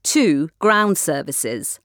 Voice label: Tow Ground Servicese, English Recording; SPA Call Centre